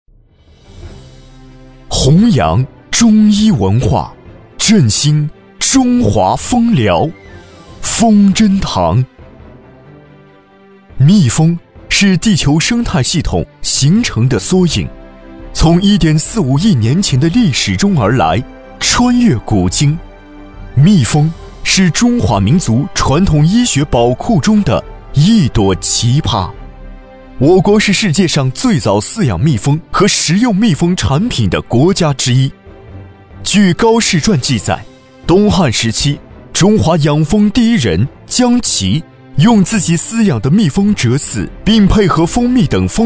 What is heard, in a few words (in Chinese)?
企业宣传片; 蜂针堂